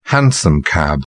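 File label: "hansom cab", British English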